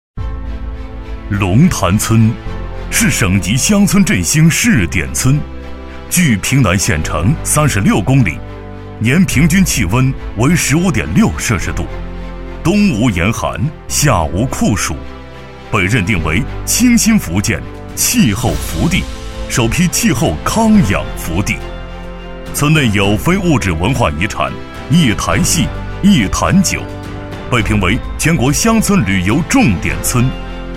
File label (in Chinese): 男 景区介绍 中国传统村落 大气硬朗; 厚重力度; 大气激昂